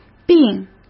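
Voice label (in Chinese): "病", Mandarin; chinese-flashcards; hsk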